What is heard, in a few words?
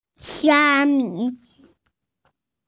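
"xia1 mi5", Mandarin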